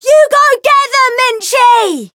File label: Voice chant